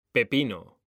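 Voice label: "pepino", Spanish, male; mekanta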